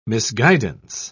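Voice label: "misguidance", British English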